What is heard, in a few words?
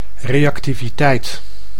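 "reactiviteit", Dutch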